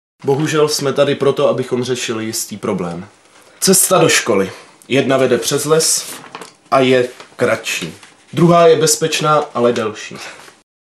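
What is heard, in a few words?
male Czech documentary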